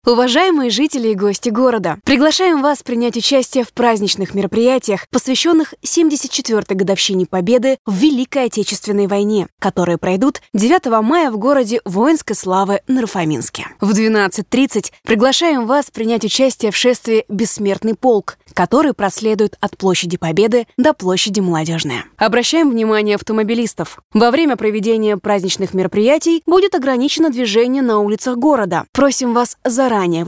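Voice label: Russian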